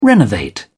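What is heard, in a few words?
"renovate", English, British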